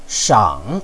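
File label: "shang3", Chinese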